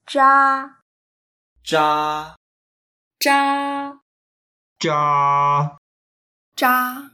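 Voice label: "zha1", Mandarin Chinese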